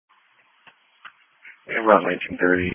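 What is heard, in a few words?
Police Patrol (Dispatch)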